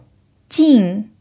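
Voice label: "jìn", Mandarin Chinese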